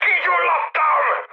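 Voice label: Hungarian on fire mood high, speech; War Thunder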